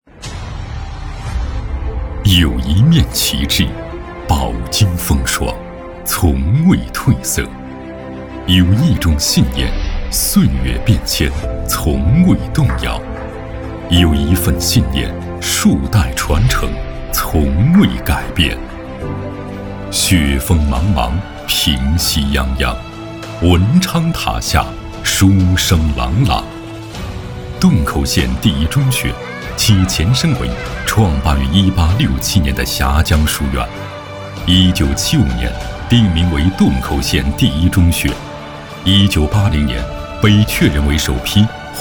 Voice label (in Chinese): 男 专题 学校 片 大气感情; 洞口县第一中学